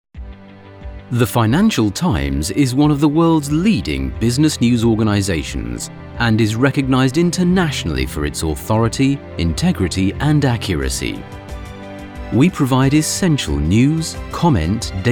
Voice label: english british corporate videos, british voice actors; Source Connect Studio